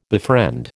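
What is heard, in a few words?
"befriend", American English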